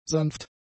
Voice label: "sanft", german; adjectives